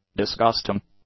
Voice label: "disgusting", English; adjectives